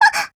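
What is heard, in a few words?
MOOD Japanese; UMP45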